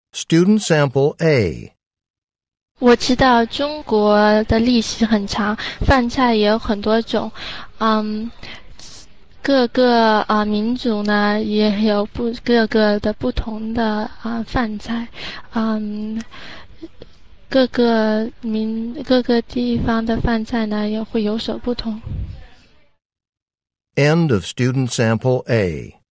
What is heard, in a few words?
Chinese conversation; Conversation 2 Sample A (Score of 6)